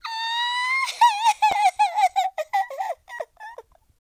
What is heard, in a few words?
sad, Southern Belle; speech